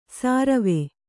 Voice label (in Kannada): "ಸರ್ವೆ", Kannada